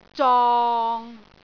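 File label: "zong6", Cantonese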